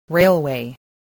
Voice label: "railway", english

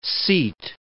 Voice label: "seat", English

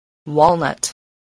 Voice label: "walnut", spelling

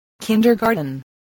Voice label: "kindergarten", English

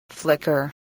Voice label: "flicker", voice

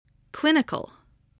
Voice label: "clinical", voice

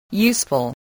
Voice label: "useful", English

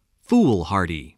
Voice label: "foolhardy", English voice, spelling

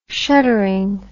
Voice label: "shuttering", English

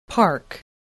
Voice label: "park", English, voice

